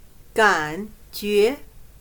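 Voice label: "感觉", Chinese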